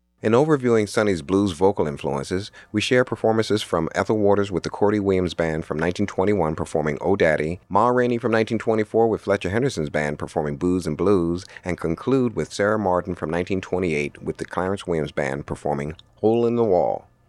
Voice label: narration the early blues women

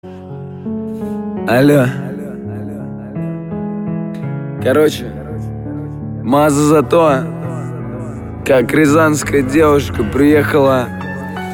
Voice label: male

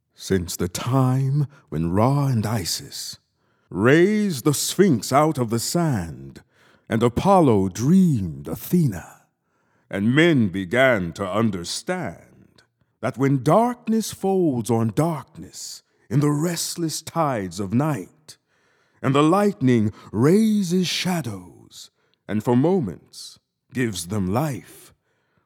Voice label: narration

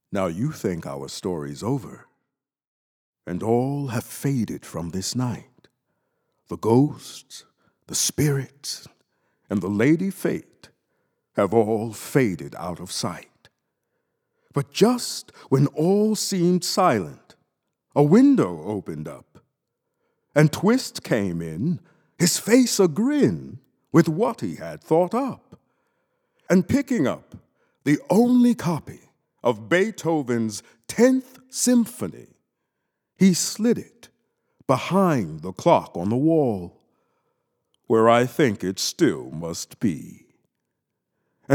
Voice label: narration

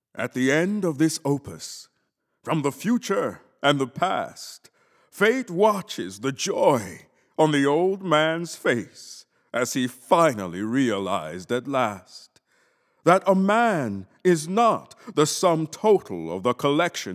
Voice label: narration

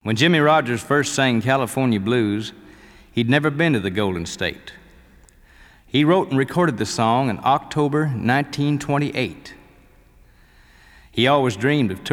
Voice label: narration

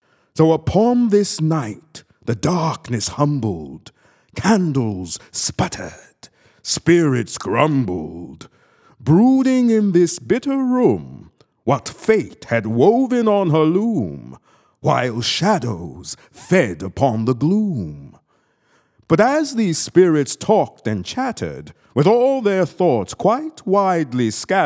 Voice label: narration

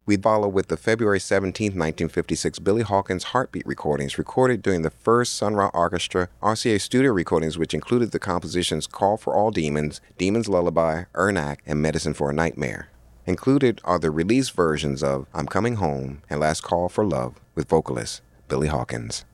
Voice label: narration

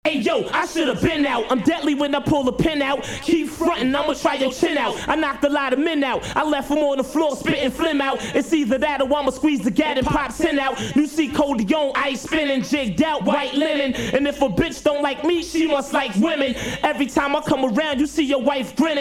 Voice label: on the mic acapella

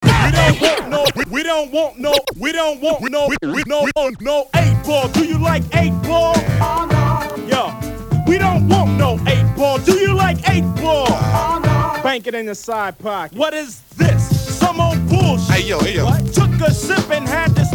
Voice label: commercial; st ides

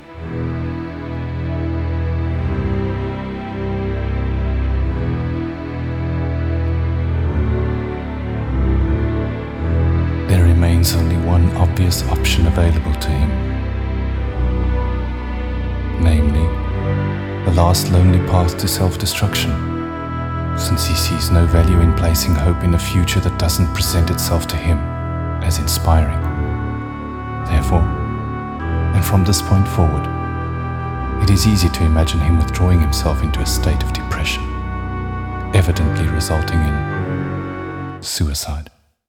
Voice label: narration

